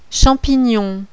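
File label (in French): "champignon", French; ESPÈCE (BIOLOGIE)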